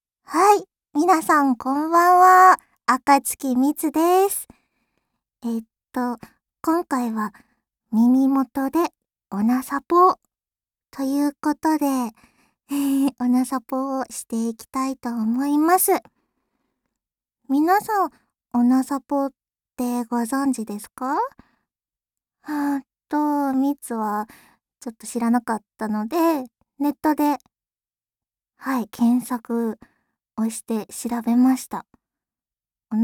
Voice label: バイノーラル; 蜜のごあいさつ; オナニー支援バイノーラル

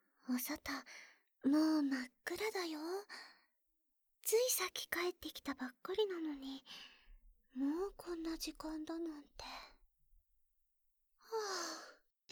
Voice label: 添い寝; 勇者の妹～おいでっ、おにーちゃん♪～; 効果音なし

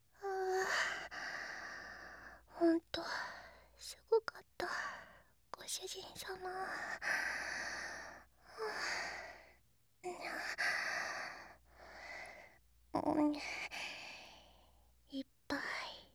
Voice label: ピロートーク

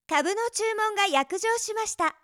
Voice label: 株の注文が約定しました！, 明るい声

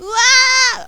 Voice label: "うわあっ", 被ダメージ

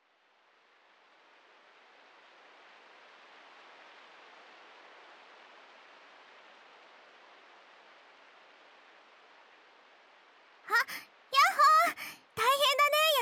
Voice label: くちゅ音無し, ＳＥ差分あり; 職員用トイレで――スリルを味わいながら……。; 手コキ＆フェラチオ

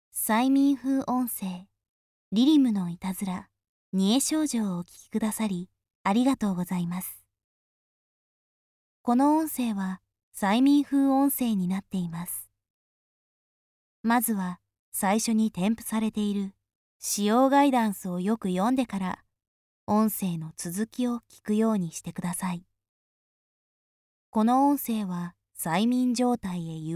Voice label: 催眠パート; リリムの悪戯～贄少女～

